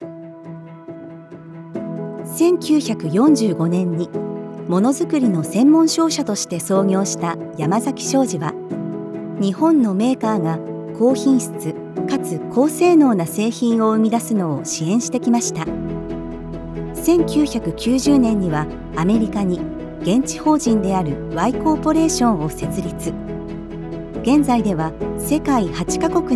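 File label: japanese narration, female Japanese; voice actor; Commercial Demo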